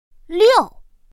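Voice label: "liù", Mandarin Chinese pinyin; pinyin2; pinyinji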